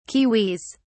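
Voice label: "kiwis", British English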